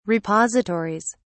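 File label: "repositories", British English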